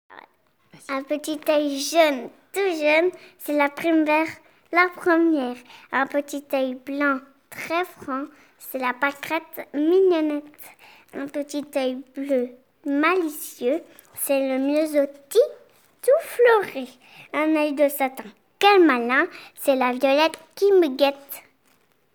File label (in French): Poésie